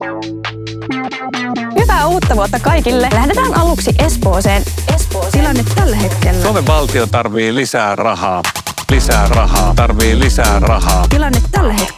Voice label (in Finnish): radio; hs lasten uutiset; lisaa rahaa